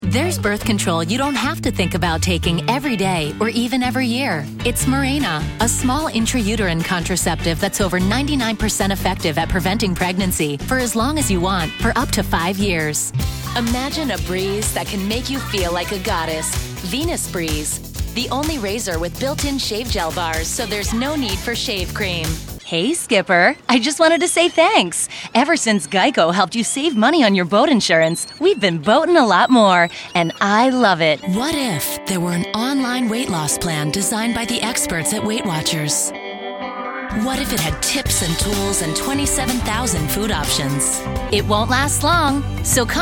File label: commercial, women